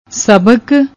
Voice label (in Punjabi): "sbk", Punjabi; ਸਬਕ